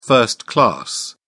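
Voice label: "first class", British English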